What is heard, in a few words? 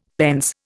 "pence", Indian; Dictionary